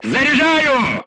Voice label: voice message reloading, crew tanks russian

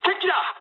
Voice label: enemy detected mood high, speech jp; War Thunder

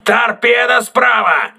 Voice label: voice message torpedo right, crew ships russian

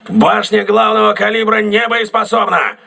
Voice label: main caliber turret damaged, crew ships russian

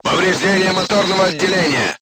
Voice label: voice message engine damaged, crew tanks russian

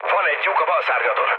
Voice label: left wing, mood low, speech hun; War Thunder

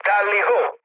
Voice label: enemy detected mood low, speech it; War Thunder

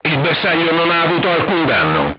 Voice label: armor not pierce, crew tanks italian; War Thunder

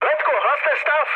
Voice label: chat, speech Czech; War Thunder

